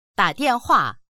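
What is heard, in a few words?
"da3dian4hua4", Chinese; dǎ diànhuà; 打 电话; 電話をかける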